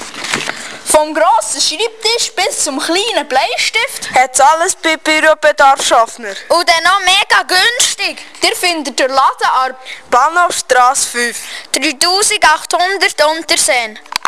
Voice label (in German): Werbung Buerobedarf, Jingles; Bürobedarf Schaffner, Unterseen